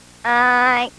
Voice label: hi, Hi (Snow Kid); katamari